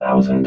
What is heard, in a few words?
"thousand", robot vox